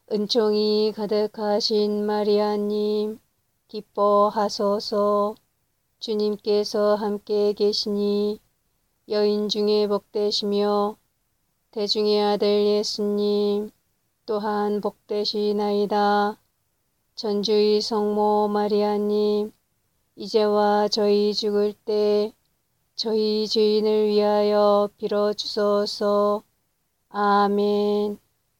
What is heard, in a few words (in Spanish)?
ave maria coreano normal